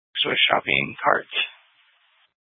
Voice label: Fire Dispatch